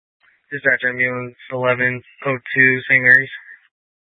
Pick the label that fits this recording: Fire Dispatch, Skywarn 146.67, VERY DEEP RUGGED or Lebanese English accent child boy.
Fire Dispatch